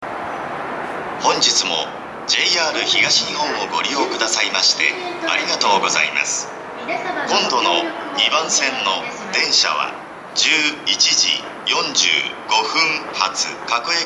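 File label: yanokuchi 2 advance notice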